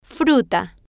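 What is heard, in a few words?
"fruta", Spanish, female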